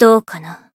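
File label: Voice variable chant, Japanese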